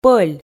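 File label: "пӧль", Komi, female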